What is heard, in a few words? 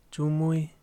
"punta", Yaqui; Vocabulary